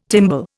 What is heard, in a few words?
"timbal", Indian